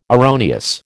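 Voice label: "erroneous", American English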